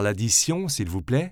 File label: slow "l'addition s'il vous plaît", French